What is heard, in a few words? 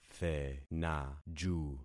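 "thenaju", concatenated syllables; Affixing experiment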